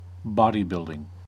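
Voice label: "bodybuilding", US English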